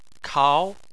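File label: "kao" second tone, Chinese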